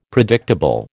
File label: "predictable", American